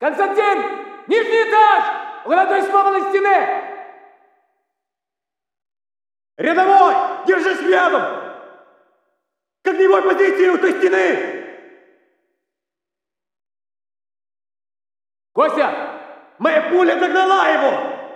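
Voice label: walla rus mountain chatter, Russian; Call of Duty Modern Warfare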